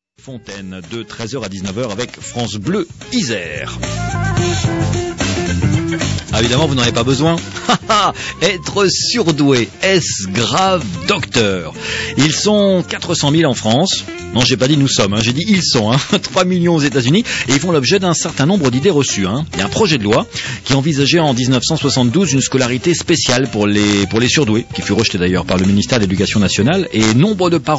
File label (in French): annonce